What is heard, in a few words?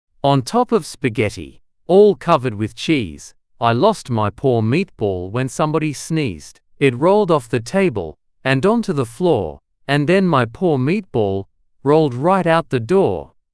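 male Australian, classic rhymes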